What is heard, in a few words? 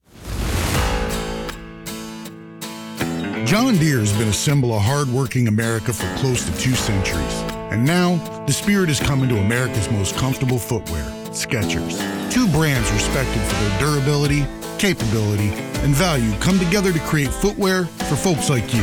Authentic Working Man Voiceover; Skechers John Deere